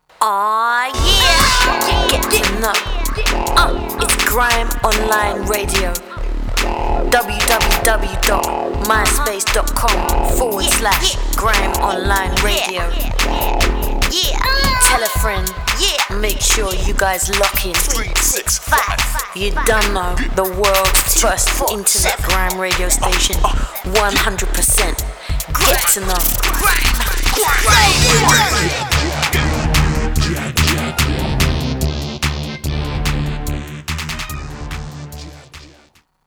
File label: ADVERT; GRIMEONLINE